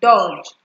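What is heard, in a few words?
"dodge", Nigerian Pidgin, female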